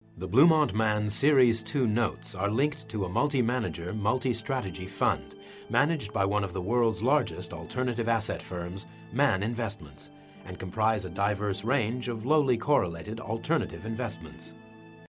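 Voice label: English US Corporate; GoLocalise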